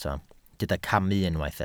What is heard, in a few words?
"camu", welsh